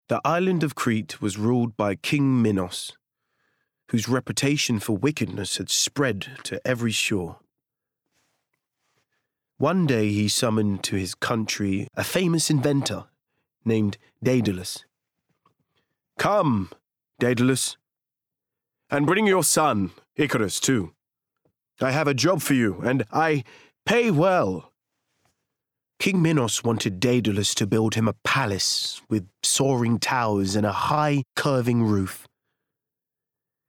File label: Bookread